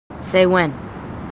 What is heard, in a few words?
marines female, marine tough